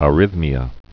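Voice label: "arrhythmia", spoken word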